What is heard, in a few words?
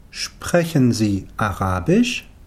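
"sprechen Sie Arabisch", German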